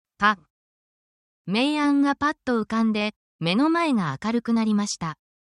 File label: japanese onomatopoeia; beyond words